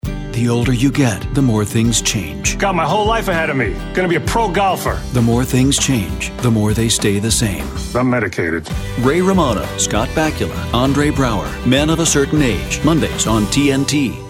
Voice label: TV Promo voice over; Men of a Certain Age